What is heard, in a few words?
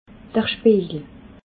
"spiejel", alsace